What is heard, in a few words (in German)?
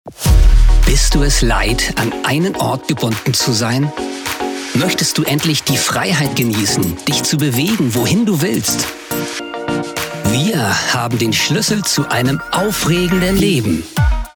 Werbung E City Car